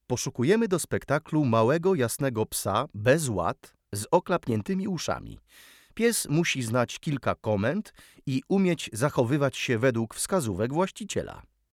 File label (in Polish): ogloszenie radiowe; pieciolatki; karty aktywnosci